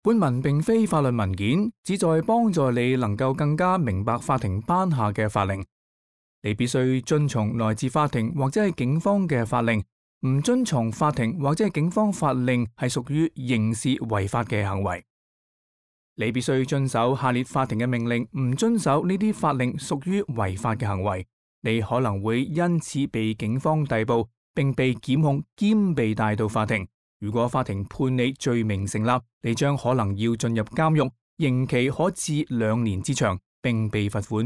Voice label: cantonese; orders about family law and parenting

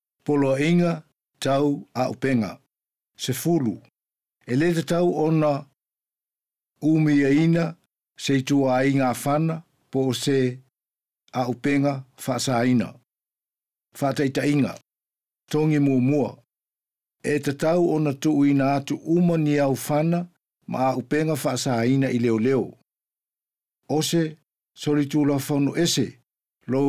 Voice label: samoan; orders about weapons

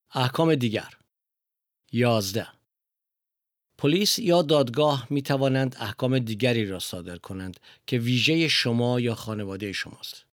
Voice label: farsi

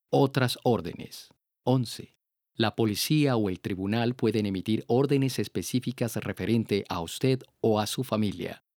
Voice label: spanish

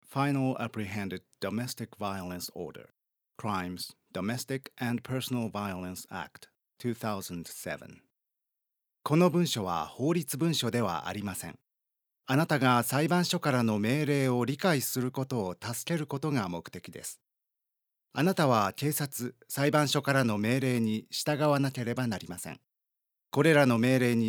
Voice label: introduction, Japanese; apprehended domestic violence orders accessible guides

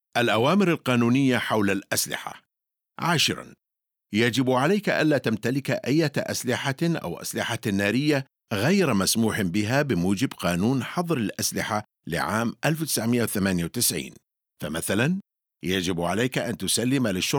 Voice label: orders about weapons arabic

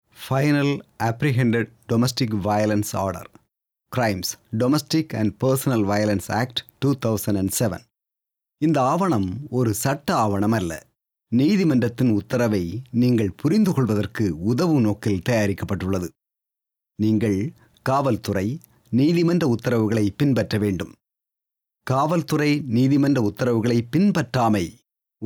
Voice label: introduction tamil

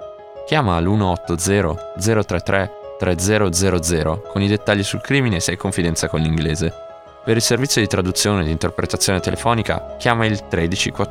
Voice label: Italian Crime Stoppers

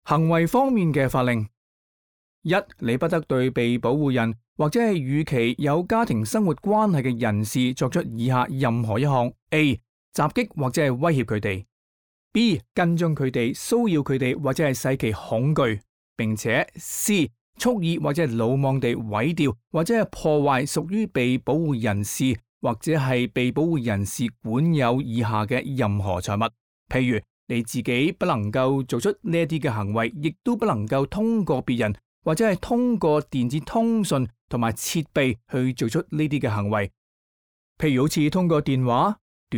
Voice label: cantonese; orders about behaviour

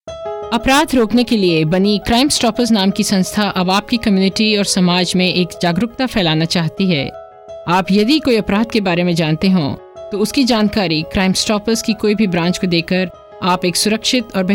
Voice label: Hindi; Crime Stoppers

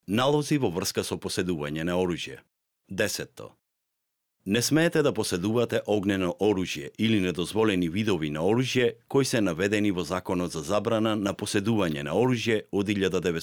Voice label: orders about weapons macedonian

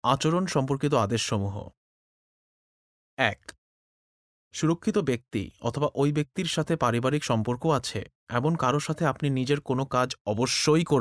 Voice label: bengali; orders about behaviour

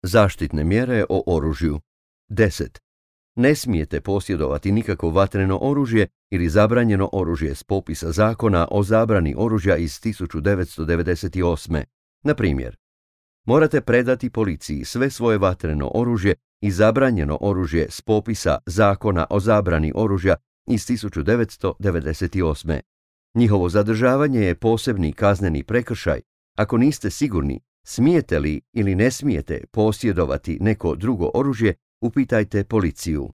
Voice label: orders about weapons croatian

